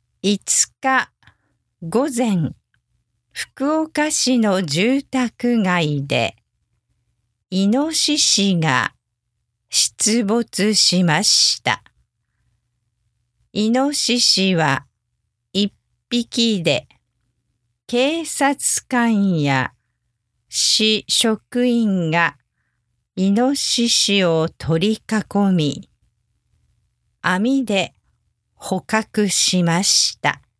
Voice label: news inoshishi slow speed, japanese

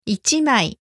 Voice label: "ichimai", Japanese

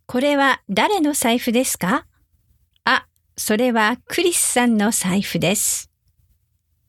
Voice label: whose wallet normal, Japanese

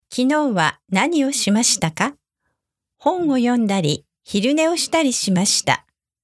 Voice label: sentence only regular speed, Japanese

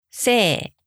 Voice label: "sei", japanese

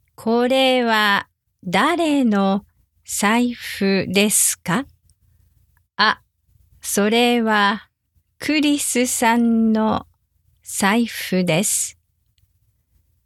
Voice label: whose wallet slow, Japanese

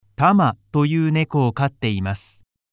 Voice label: Beginner toiu neko normal speed, japanese; Tama

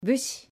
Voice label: "bushi", Japanese

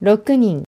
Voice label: "rokunin", Japanese

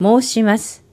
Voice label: "moushimasu", Japanese

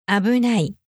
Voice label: "abunai", japanese

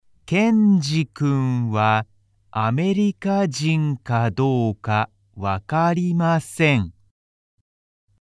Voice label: Beginner slow speed, japanese

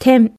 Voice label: "ten", Japanese

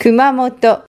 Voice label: "kumamoto", Japanese

